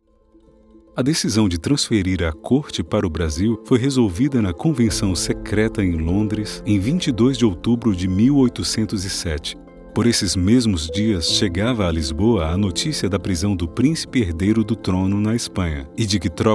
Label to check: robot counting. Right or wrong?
Wrong